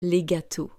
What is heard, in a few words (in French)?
"Les gateaux", French